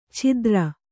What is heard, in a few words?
"ಛಿದ್ರ", Kannada; chidra; noun; an opening through something; gap; an aperture: a hole.; a defect